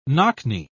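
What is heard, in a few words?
"knock-knee", English, British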